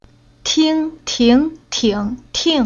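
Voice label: "Ting", Chinese syllable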